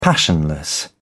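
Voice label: "passionless", British English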